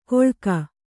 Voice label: "ಕೊಳ್ಕ", Kannada